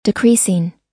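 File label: "decreasing", English pronunciation; long i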